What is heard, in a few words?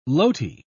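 "loti", British English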